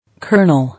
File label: "Kernel", English